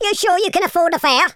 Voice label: "coward", London Cabbie; speech

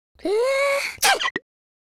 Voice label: idle, Spanish

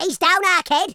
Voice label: "fatality", Madchester

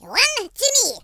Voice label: firstblood, French Lover; speech

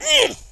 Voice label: jump, French; speech

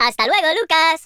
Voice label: bye bye, Spanish

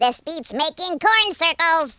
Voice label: collect, speech, Alien; Worms3D

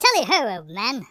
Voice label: "bye bye", speech; Biggles

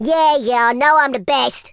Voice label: "flawless", Teenage Angst speech

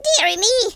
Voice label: "oops", Irish; speech

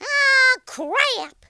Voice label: "grenade", Cowgirl; speech

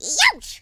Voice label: "ouch", Irish; speech

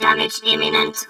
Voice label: uh oh; Cyberworms

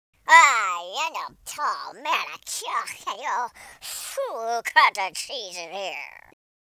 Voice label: angry, US Sports speech

